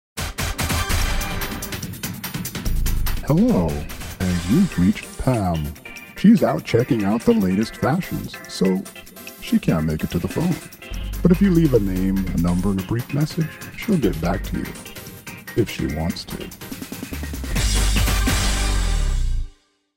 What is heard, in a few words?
phone message; Body Tone Multimedia